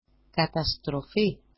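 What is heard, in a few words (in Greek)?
"katastrofi", Greek; καταστρoφή; catastrophe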